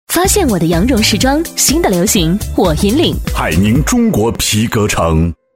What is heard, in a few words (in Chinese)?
女4号 广告 男女合配, 国语女声配音